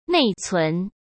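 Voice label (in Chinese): "内存", Mandarin Chinese; hsk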